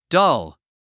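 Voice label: "dull", English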